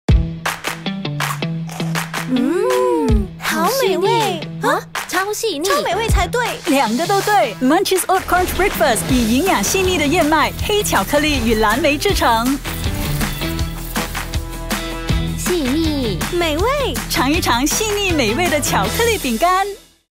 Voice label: Mandarin Announcer, Style: Mid to peak tone, Confident and Engaging; Farm Fresh